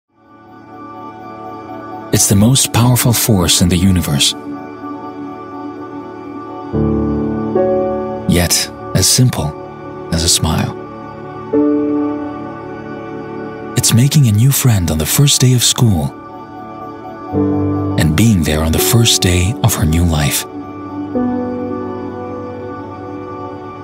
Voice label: Male 30 40 years Medium Low, english international